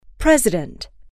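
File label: "president", English, female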